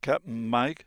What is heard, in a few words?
casual